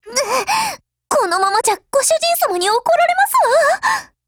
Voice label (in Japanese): BREAK JP